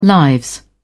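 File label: "lives", British English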